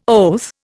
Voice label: "oath", Indian

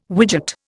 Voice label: "widget", Indian

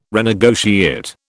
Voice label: "renegotiate", British

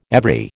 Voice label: "every", American

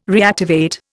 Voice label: "reactivate", Indian

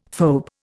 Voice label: "phoebe", Indian; Dictionary

